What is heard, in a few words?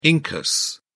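"incus", British English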